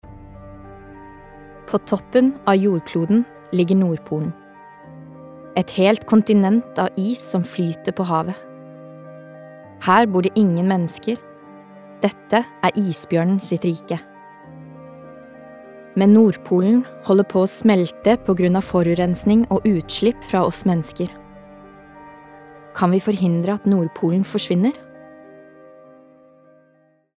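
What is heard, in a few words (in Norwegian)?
dokumentar, Norwegian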